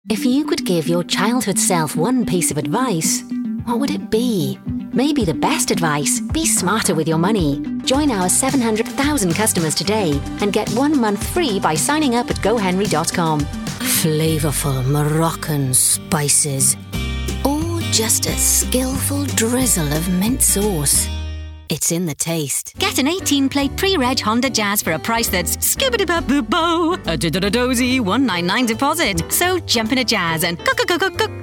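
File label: British English, General; GoLocalise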